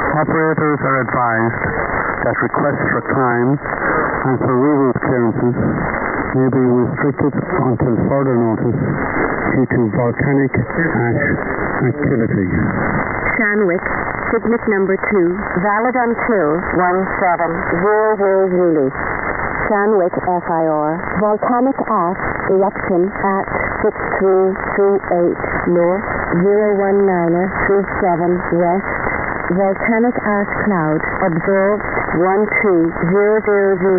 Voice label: Shannon Volmet 8957; IRL